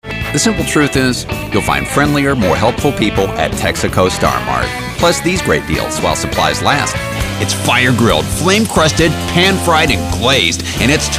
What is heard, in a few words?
voiceover demo